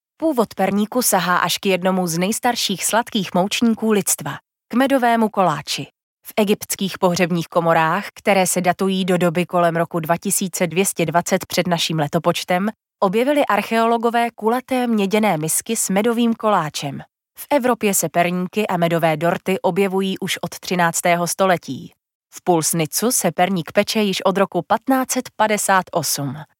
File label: corporate